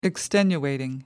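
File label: "extenuating", British English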